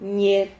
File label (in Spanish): "ñier", Silabas